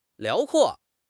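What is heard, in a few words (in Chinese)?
"辽阔", Chinese; HSK6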